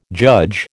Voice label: "judge", American

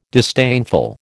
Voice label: "disdainful", American

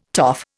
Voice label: "toff", Indian

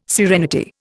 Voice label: "serenity", Indian

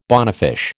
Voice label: "bonefish", American

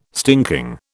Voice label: "stinking", British

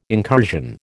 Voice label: "incursion", American

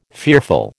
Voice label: "fearful", American

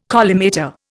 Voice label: "collimator", Indian

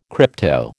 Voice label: "crypto", American

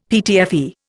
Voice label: "PTFE", Indian; Dictionary

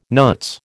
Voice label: "nuts", American

